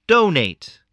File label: "donate", spelling